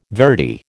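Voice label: "verity", American English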